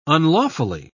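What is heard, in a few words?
"unlawfully", English, British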